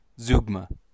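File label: "zeugma", US English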